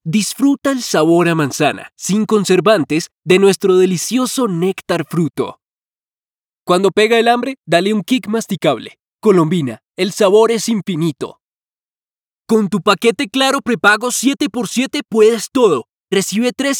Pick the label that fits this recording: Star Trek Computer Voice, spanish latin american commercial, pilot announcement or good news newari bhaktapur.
spanish latin american commercial